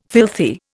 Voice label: "filthy", Indian